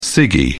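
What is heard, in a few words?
"ciggie", British English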